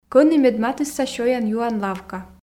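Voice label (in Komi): кӧні медматысса сёян-юан лавка, Komi, female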